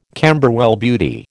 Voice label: "Camberwell Beauty", American